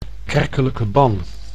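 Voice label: "kerkelijke ban", Dutch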